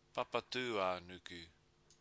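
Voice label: "papatuanuku", Māori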